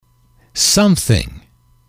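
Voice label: "something", English, male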